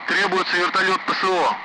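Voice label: Speech Sound Russian Common Allied Flight; Request CSAR; DCS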